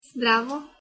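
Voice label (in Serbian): "здраво", Serbian pronunciation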